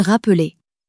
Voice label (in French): "rappeler", French